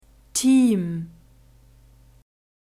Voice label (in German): "team", German